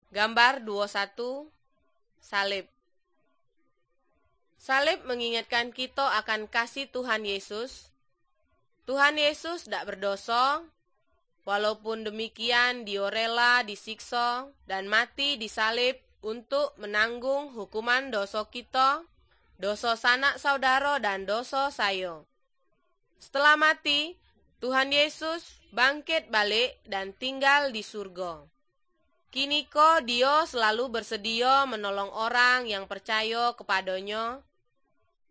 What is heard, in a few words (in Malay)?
good news malay jambi